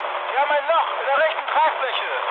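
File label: German right wing mood med, speech; War Thunder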